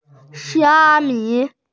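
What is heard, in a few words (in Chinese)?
"xia1mi5", Mandarin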